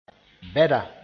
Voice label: "bɛda", Mooré; oilseed crop